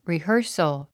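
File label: "rehearsal", English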